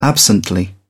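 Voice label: "absently", British English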